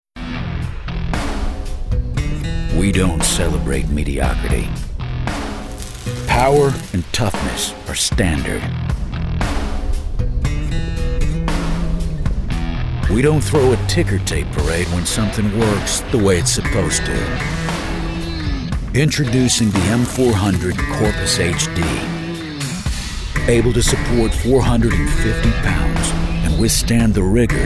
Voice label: Edgy